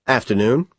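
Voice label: "afternoon", American English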